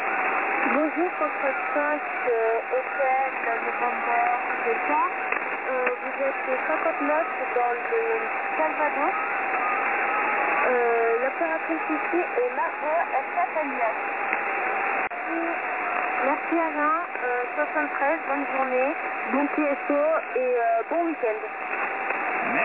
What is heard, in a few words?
QSO